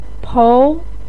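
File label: "pou", Mandarin Chinese second tone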